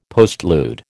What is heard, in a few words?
"postlude", American